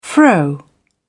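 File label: "fro", British English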